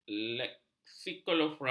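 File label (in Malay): "leksikografi", Malay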